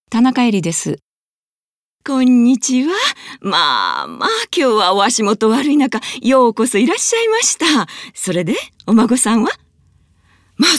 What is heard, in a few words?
drama